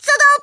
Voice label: WHAT THE, Speech, Polish; Worms Armageddon